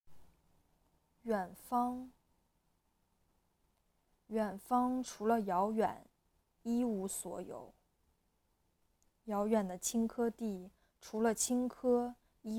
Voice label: 诗朗诵; 海子; 远方

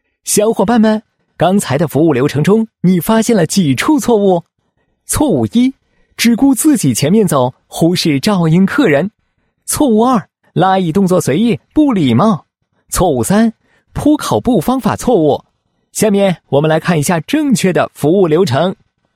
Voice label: 男 酒店教学; 飞碟说

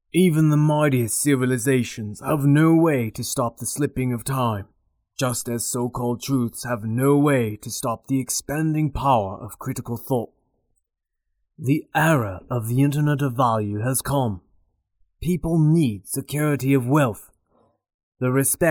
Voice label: 外籍配音美式男 故事讲述

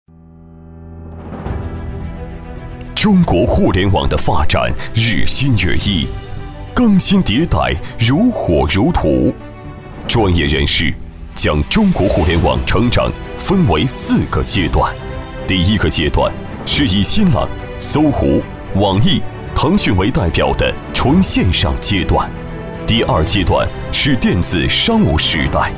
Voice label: 男 企业宣传 中国互联网 慢速震撼

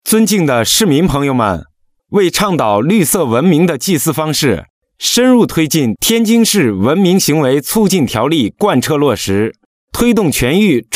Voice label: 广播宣传 致广大市民

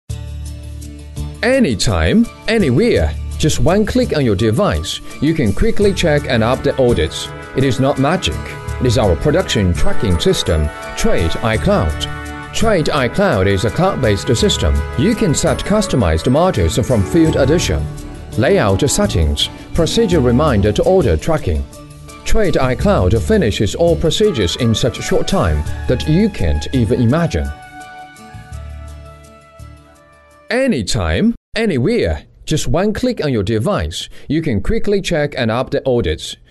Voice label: 英男 轻快动感; Trade iCloud

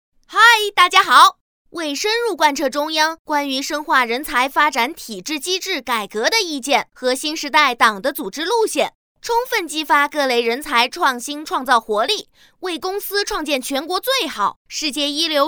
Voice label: 童声专题; 工作指导意见

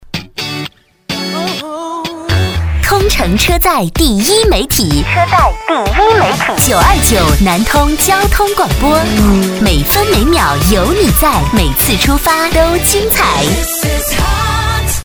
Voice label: 女 台标 大气 活力; 南通半点台标

